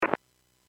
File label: Aviation 122.7; KSTE